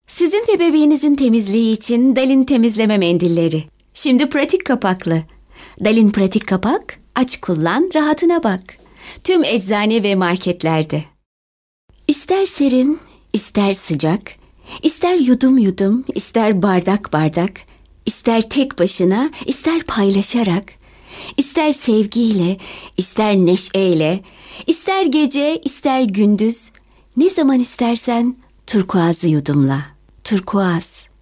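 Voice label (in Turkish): kadın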